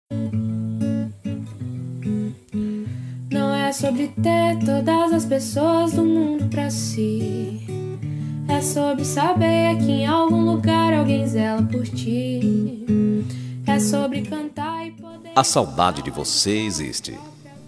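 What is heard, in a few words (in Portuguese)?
SAUDADES AMIZADE male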